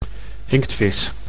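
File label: "inktvis", Dutch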